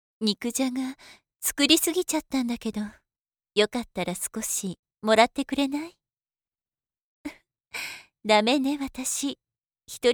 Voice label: female